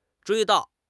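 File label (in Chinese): "追悼", Chinese; HSK6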